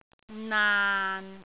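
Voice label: "naan6", Cantonese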